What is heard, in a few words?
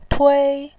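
"tui", Mandarin Chinese, first tone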